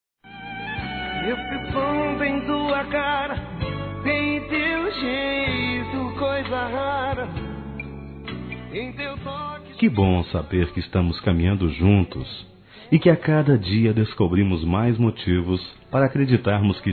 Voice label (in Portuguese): ROMANTICA SUAVE M, Telemensagens Românticas